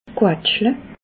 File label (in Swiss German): "quatschle", alsace